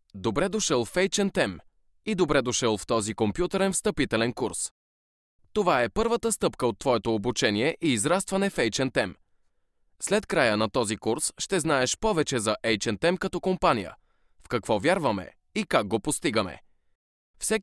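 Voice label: bulgarian male e-learning; voice demos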